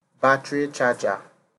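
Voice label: "battery charger", Nigerian Pidgin, male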